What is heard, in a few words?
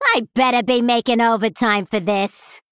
Female Goblin Idle